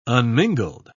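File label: "unmingled", British English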